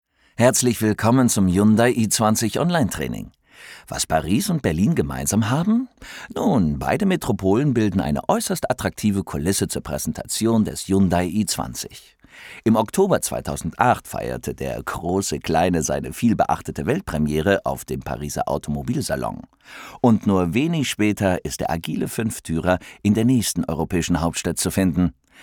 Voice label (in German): de elearning, deutsch maenner; sprecher